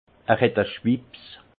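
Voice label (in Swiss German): "schwipps", alsace Haut-Rhin; vin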